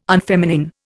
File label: "unfeminine", Indian